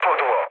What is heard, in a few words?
bomb failure mood low, speech pol; War Thunder